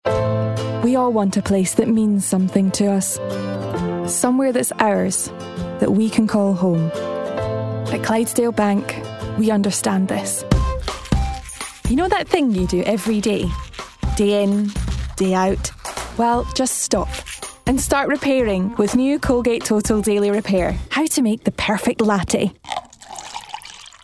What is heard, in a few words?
Commercial Voice reel